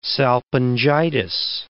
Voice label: "salpingitis", English